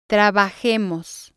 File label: "trabajemos", Spanish